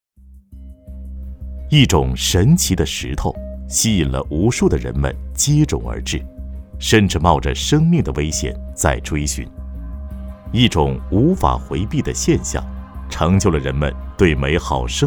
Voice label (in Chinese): 男 纪录片 导视; 奇石的诱惑